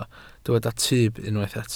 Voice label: "tyb", Welsh